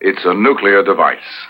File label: Nuclear Device, Spoken, Industrial